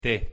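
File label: pronunciation "t", Spanish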